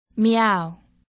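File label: "miaow", English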